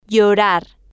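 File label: "llorar", spanish, female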